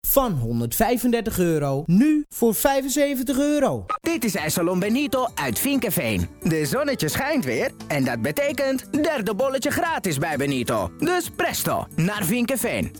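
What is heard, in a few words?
Dutch male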